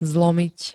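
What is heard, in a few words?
"zlomiť", Slovak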